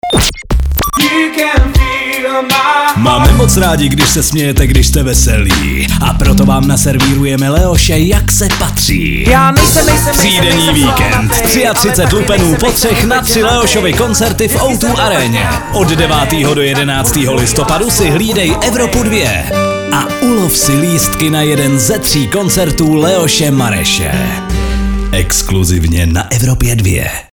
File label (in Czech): promo vikend; evropa2